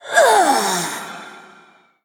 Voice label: Female Elemental Death

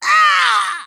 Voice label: Female Blood Elf Death; Bacon Shop

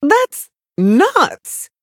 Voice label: Elf Emote Wow; Alleria

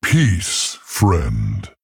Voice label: Male Tauren Greetings

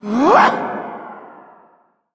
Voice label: Female Air Elemental Attack

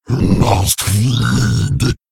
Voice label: Male Ghoul Play

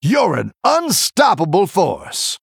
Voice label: Male Human Combat Win

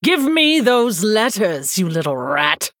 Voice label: Female Human; Prestor; Scabbs

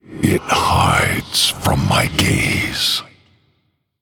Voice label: Male Human ERROR STEALTH

